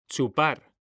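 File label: "chupar", Spanish, male; mekanta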